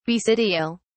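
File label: "basidial", British English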